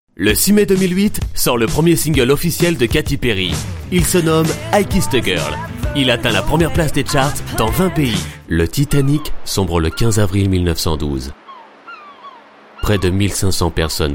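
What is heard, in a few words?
Documentaries French Male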